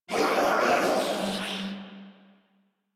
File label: Death Sniper, en